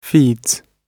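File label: "feeds", British English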